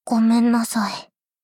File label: sad sorry